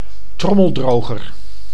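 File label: "trommeldroger", Dutch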